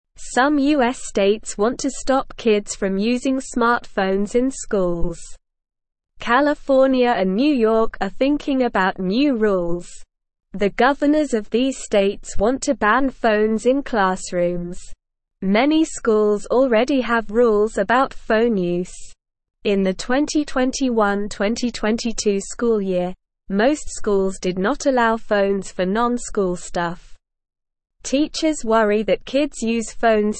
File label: English Beginner SLOW Reading; States Consider Banning Phones in Schools to Help Kids